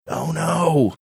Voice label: quiet oh no

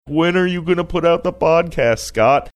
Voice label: saying when are you gonna put out podcast funny

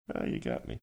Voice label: quietly saying ah you got me

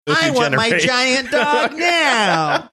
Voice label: saying i want my giant dog now spoiled brat

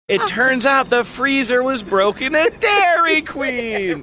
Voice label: saying it turns out freezer was broken funny

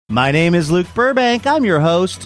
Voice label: saying my name is im your host sing songy

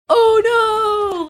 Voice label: drawn out oh no

